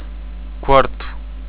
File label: room, europortuguese